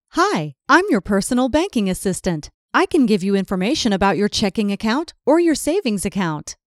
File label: IVR, Hi Bank Asst